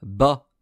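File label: "bas", French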